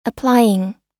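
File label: "applying", US English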